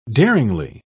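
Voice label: "daringly", British English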